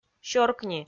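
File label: "сёркни" Komi, female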